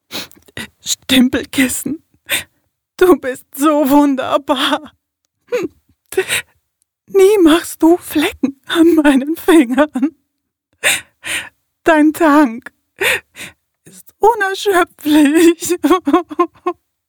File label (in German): heulend